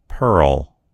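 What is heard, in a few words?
"pearl", American English; gcompris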